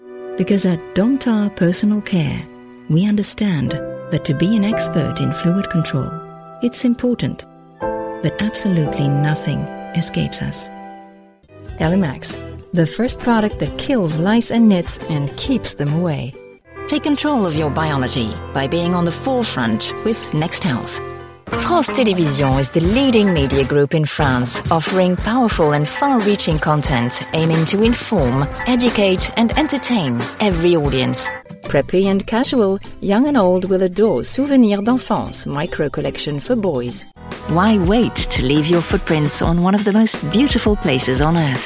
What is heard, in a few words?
English Commercials